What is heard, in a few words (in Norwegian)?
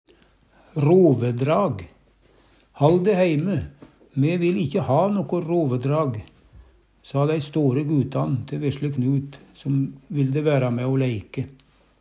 "rovedrag", Numedalsmål